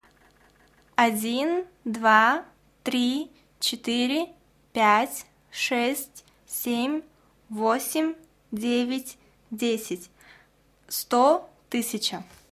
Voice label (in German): Russisch